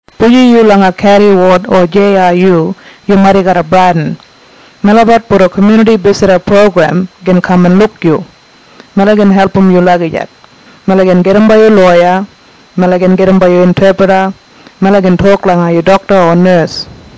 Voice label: Kriol